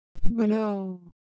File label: Ewe Sound, ideophones